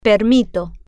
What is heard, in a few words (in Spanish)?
"permito", Spanish